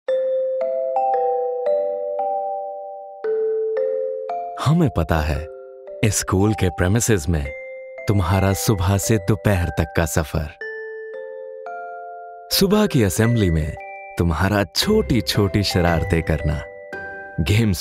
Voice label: Children Day Film Hindi VO; Teachmint

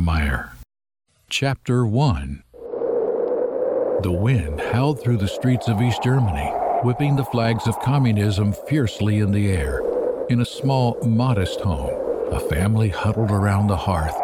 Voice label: Audiobooks Fiction Fading

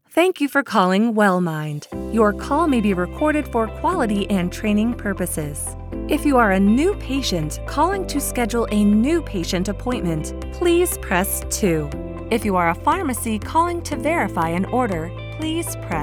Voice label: Main IVR with Music Example

